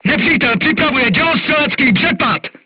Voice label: voice message art shooting warning, crew tanks czech